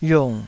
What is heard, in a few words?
"yung3", taiwanese